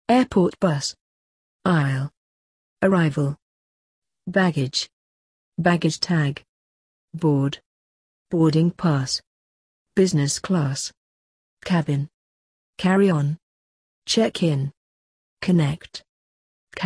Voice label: airports british